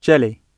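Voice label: "jelly", British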